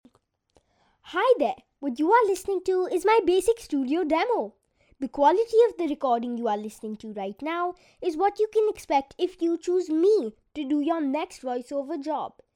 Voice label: ENG Indian Boy 10yrs